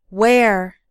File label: "wear", English